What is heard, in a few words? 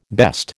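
"best", American English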